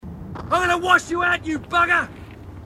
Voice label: marine, aussie; h2dialogue